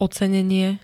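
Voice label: "ocenenie", Slovak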